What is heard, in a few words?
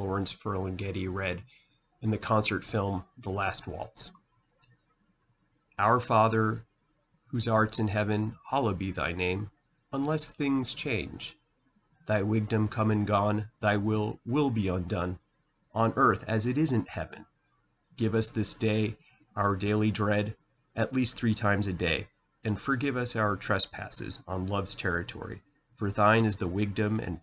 Loud Prayer